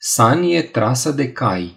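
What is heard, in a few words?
"sleigh", Romanian; gcompris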